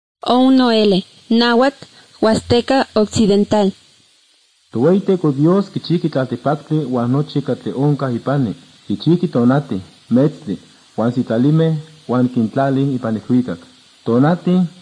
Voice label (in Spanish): spanish mexico